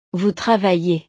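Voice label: "vous travaillez", French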